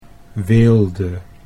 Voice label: "weelde", Dutch

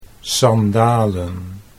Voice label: "sandalen", Dutch

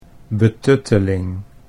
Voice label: "betutteling", Dutch

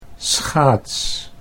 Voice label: "schaats", Dutch; 'skate'

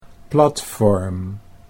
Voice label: "platform", Dutch

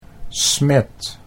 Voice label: "smet", Dutch

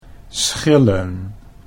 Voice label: "schillen", Dutch

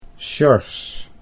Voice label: "Sjors" Dutch

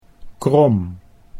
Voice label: "krom", Dutch

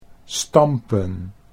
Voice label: "stampen", Dutch

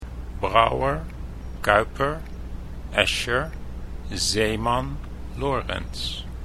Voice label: "Brouwer", Dutch

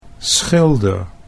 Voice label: "schilder", Dutch

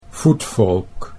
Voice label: "voetvolk", Dutch

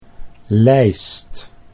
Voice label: "lijst", Dutch

